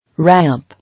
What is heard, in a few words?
"Ramp", English